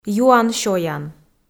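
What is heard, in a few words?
"юан-сёян", Komi, female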